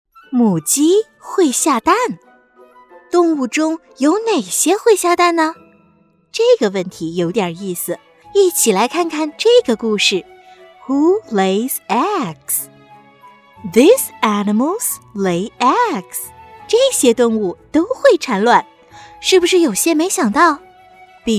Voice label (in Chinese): 女 中英读物 儿童读物